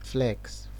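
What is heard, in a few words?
"flex", Nigerian Pidgin, male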